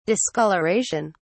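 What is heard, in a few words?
"discolouration", English, British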